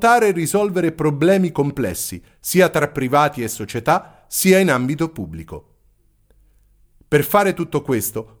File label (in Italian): messaggio benvenuto facilitatore; twitter